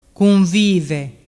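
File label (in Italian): "cunvive", dialect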